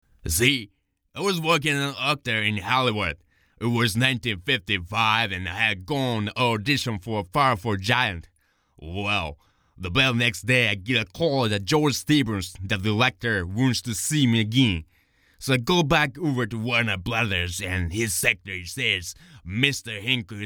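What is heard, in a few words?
character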